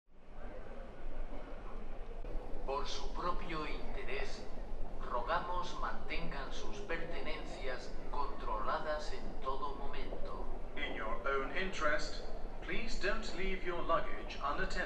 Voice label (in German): Flughafen Ansagen Mallorca